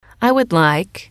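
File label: "I would like", English, female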